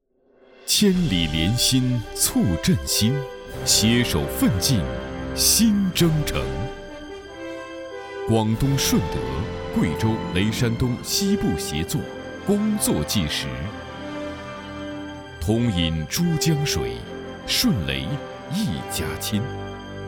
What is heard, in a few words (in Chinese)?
男 政府宣传片; 千里连心促振兴